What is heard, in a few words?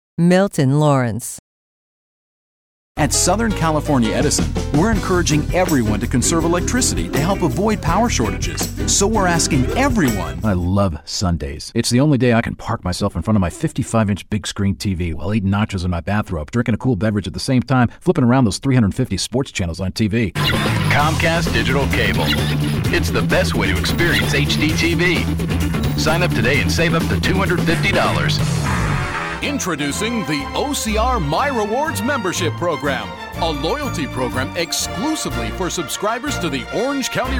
commercial; Innovative LA